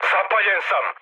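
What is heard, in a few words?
Serbian on fire mood med, speech; War Thunder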